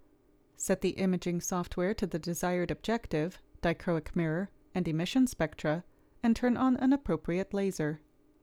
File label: Voiceover